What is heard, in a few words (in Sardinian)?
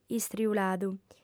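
"istriuladu", Sardinian, female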